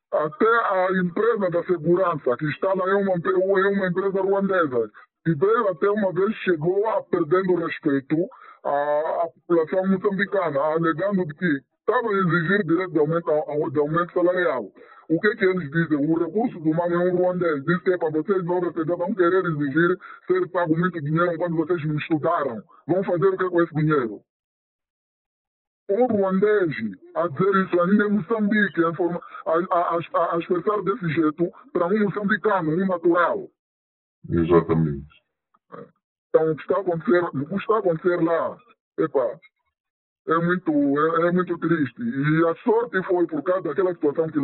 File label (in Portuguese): voz distorcida; TotalEnergies; Maganja